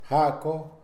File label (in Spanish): "porque", Mixe, hombre; Cognición; INALI